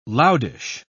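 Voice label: "loudish", British English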